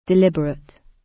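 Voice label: "deliberate", British; Crime and punishment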